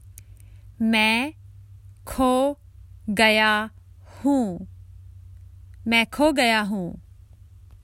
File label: Common Hindi Phrases for travel Im lost male